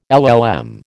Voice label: "LL M", American